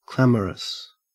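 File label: "clamorous", English, Australian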